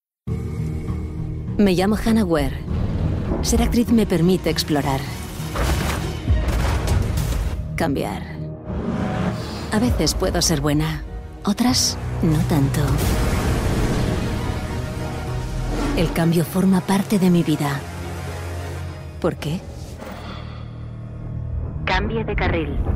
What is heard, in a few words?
female spanish car comercial; ideasonora